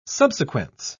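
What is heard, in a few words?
"subsequence", British English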